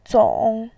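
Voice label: "zong" Mandarin Chinese, third tone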